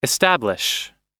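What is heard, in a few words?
"establish", English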